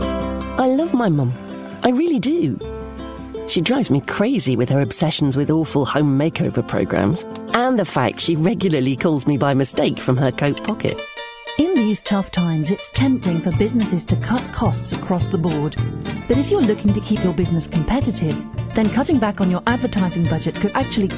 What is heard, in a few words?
british commercial